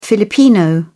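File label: "filipino", British English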